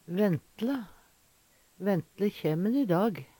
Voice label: "ventle", Numedalsmål; ventar at det er slik, helst truleg; Ventle kjæm`n i dag.; Ordklasse: Adverb

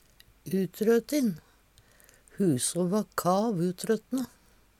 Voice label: utrøtin, Numedalsmål; Adjektiv

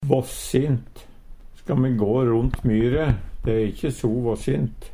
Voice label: "våssint", Numedalsmål; Ska me gå rondt myre, der e de ikkje so våssint?; Adjektiv

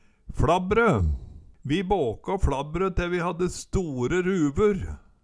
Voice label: fLabbrø, Numedalsmål

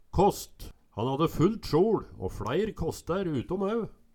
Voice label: kast, Numedalsmål

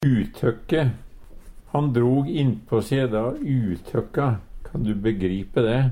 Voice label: utokke, Numedalsmål; Substantiv hokjønn; utøkka

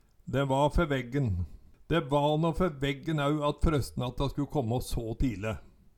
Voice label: "de va ferveggen", Numedalsmål; De va nå ferveggen au at frøstnatta sku kåmmå så tile

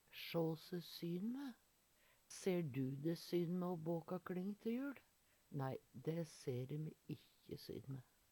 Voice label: sja se syn, Numedalsmål; sjå se syn mæ; Eksempel på bruk Ser du de syn mæ o båkå kling te jul? Nei, dæ ser e me ikkje syn mæ

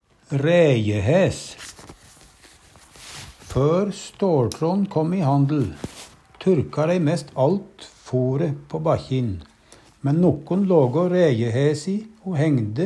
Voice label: ræjehæs, Numedalsmål; Potetgras vart jamt turka på ræjehæsi.

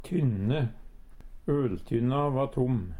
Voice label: "tynne", Numedalsmål; Øltynna va tom.; Hushald, mat, drikke

